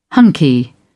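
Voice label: "hunky", English, British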